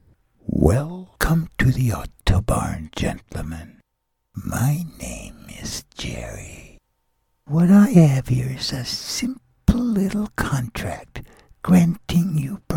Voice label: ANIMATION; Jerry the Snake